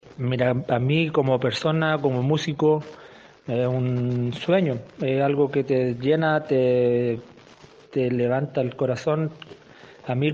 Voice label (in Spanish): Cuña